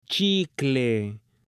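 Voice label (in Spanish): "chicle" Spanish, female; ALIMENTOS; AppLenguaje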